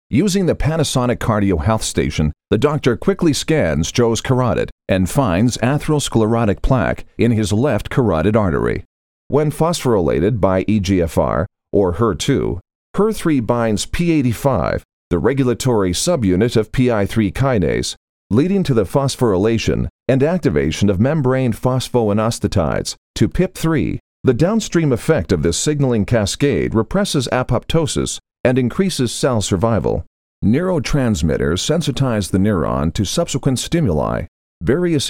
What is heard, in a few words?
english canadian e-learning, canadian english voice actors